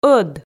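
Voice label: "ӧд", Komi, female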